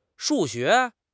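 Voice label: "shu4 xue2", Chinese; HSK3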